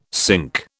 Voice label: "sync", British